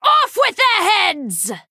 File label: Female Night Elf Attack